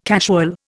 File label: "casual", Indian